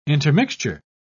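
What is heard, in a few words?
"intermixture", British English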